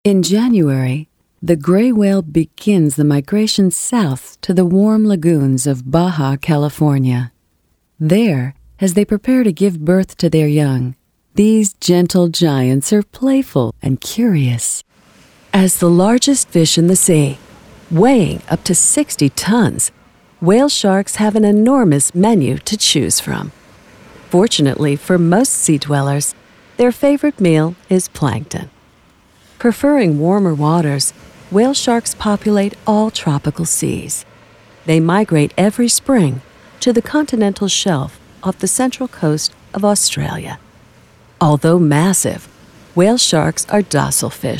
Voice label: Narration Section